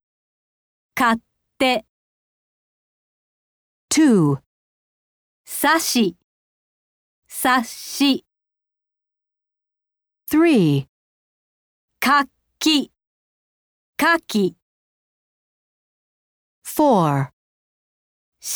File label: Hiragana Double Consonants, japanese